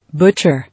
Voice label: "Butcher", English